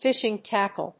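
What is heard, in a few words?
"fishing tackle", English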